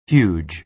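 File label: "huge", American English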